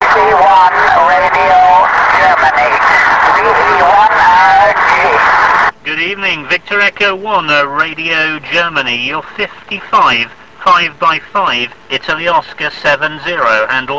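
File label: amateur radio contact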